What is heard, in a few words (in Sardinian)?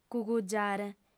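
"cugugiare", female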